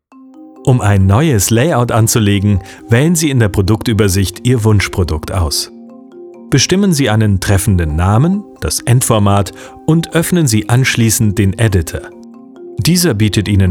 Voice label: e learning